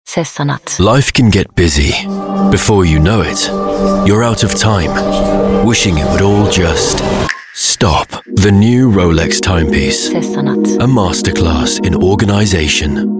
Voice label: ENG BRT; British English